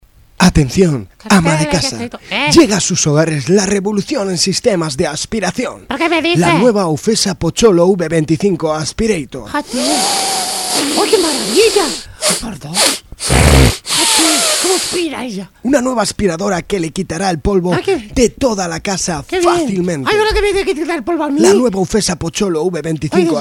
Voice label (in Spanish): Publicidad; Ufesa; Pocholo; aspireitor